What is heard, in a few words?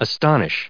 "astonish", us